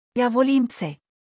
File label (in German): serbisch